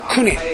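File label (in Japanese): "kune", 南山形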